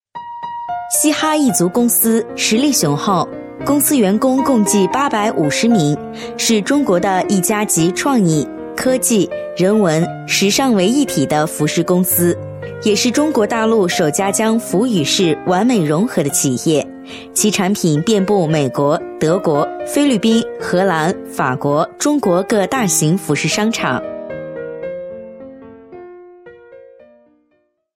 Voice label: 女 品牌广告 正式, 国语女声配音; 年轻专题; 公司介绍

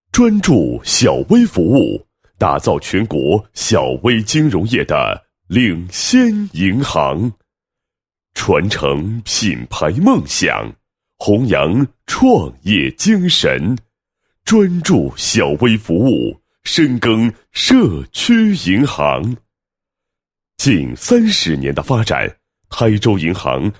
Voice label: 男 企业 企业宣传片, 配音; 银行企业宣传片; 台州银行企业宣传片

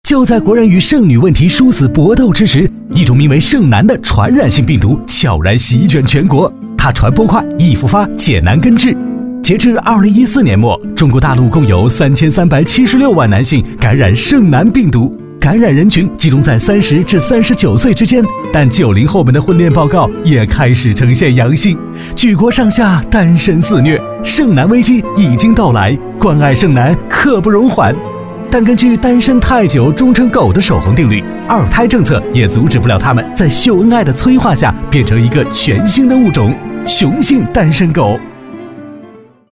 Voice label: 男, 国语男声配音; 飞碟说-剩男病毒

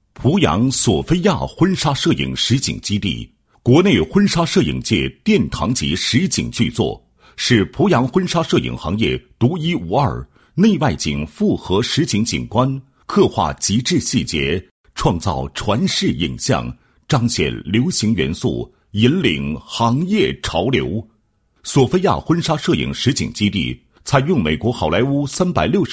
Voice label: 男 企业 婚纱摄影基地宣传简介; 濮阳索菲亚婚纱摄影基地

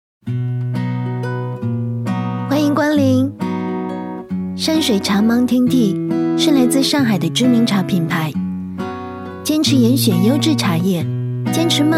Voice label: 女-甜美版; 山水茶mountain-TEA

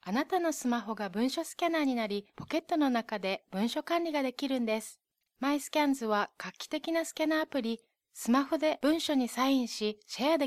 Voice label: 日语女-干音-解说

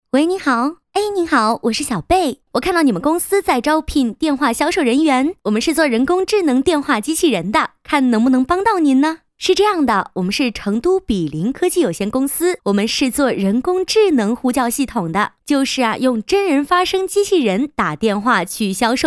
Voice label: 女, 彩铃, 人工智能销售, 电话对话感

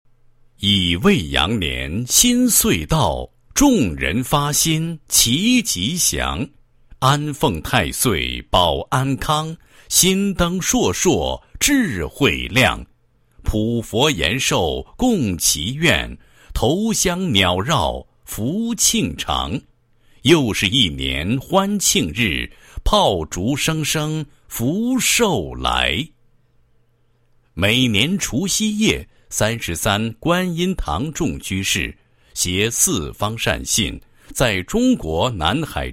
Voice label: 男 宗教; 九月十九观音出家日

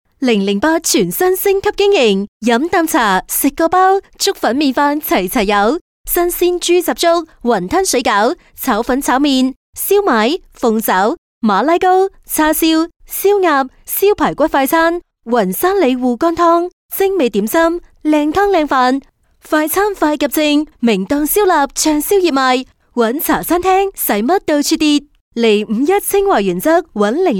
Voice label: 粤女 粤语普通广告; 零零八

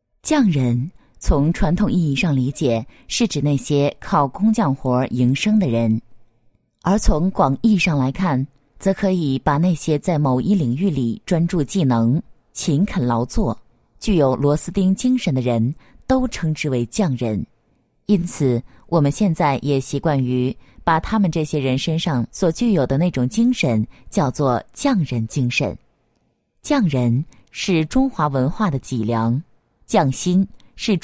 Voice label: 女 专题, 磁性女配; 国语女声配音; 匠人

